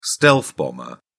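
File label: "stealth bomber", British English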